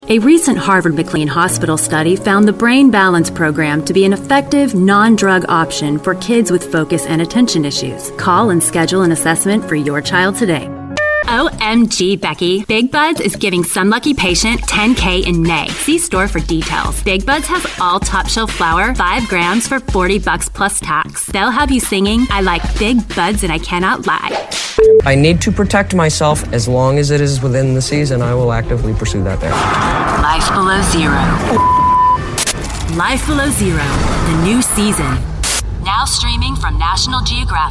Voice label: english american voice over female commercial